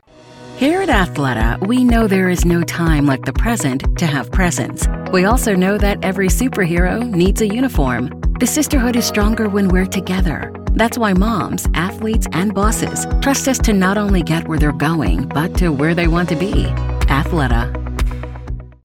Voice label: Corporate, Relatable Expert, Female Voice; ATHLETA